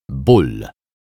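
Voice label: "bol", Polish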